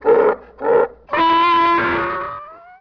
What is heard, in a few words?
vox tusken